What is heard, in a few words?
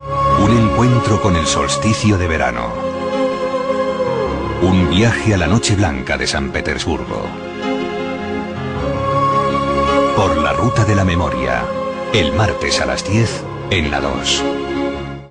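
Spanish Spain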